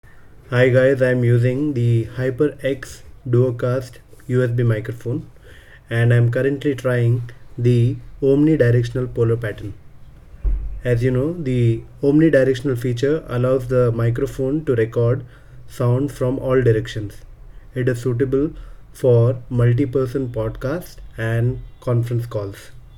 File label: HyperX DuoCast omnidirectional